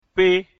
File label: "kpe", mina